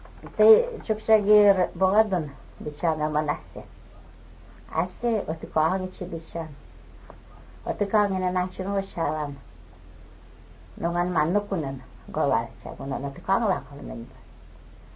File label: negidal